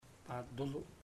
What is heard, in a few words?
I am hot, chamacoco; Puerto Diana